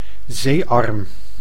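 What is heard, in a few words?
"zeearm", Dutch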